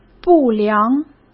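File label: "不良", Mandarin Chinese; chinese-flashcards; hsk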